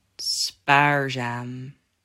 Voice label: "Spaarzaam", Dutch

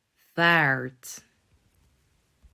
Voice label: "Vaart", Dutch

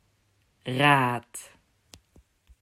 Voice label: "Raad", Dutch

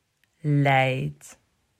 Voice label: "Leid", Dutch

